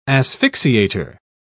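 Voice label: "asphyxiator", English, British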